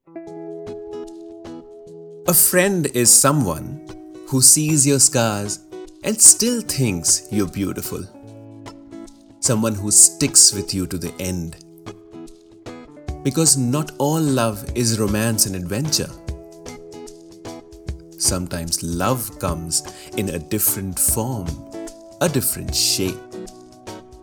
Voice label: Male English VO Sample